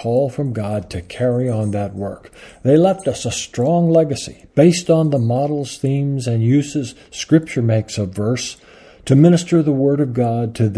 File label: Poetry Excerpt; Poetry Calling